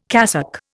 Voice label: "cassock", Indian

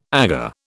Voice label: "Aga", British

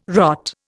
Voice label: "rot", Indian

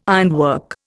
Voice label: "ironwork", Indian

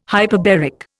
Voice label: "hyperbaric", Indian

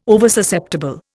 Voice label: "Oversusceptible", Indian

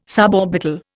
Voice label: "suborbital", Indian

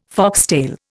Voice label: "foxtail", Indian

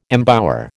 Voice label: "embower", American

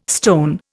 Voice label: "stone", Indian

